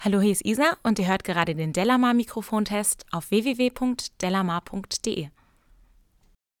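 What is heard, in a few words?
speech female thomann sc450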